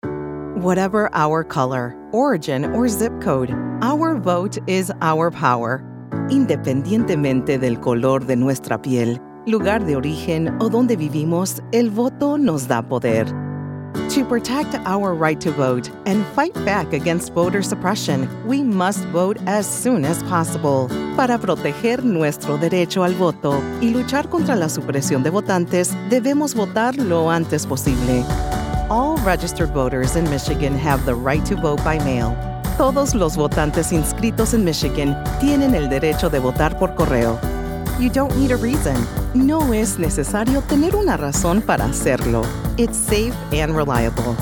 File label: Bilingual Political, voiceover; Our Vote is Our Power – MI